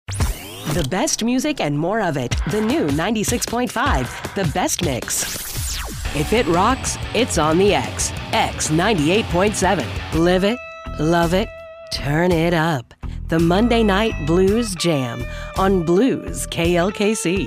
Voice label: Imaging Demo; Radio Imaging Demo